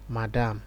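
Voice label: "madam", Nigerian Pidgin, male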